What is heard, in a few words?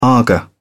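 "aga", British English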